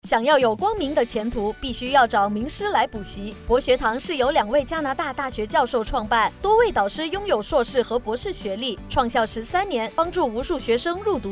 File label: Radio Mandarin; Praxis Learning Center